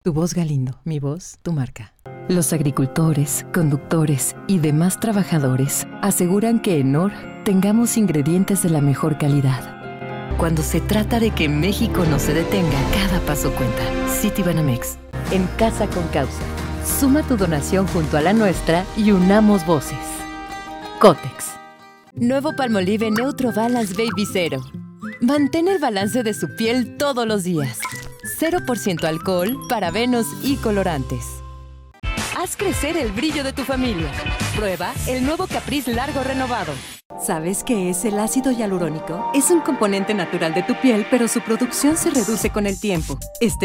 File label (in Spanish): Demo Comerciales